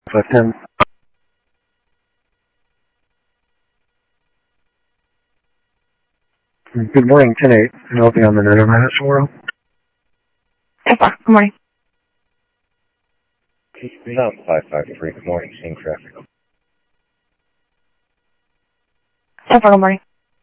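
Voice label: Sheriff Lake Patrol, scanner audio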